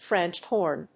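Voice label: "French horn", English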